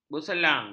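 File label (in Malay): "berselang", Malay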